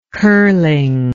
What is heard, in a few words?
"curling", english